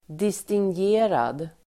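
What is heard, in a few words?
"distingerad", Swedish; Uttal: [distingg'e:rad]; distinguished, dignified; förnäm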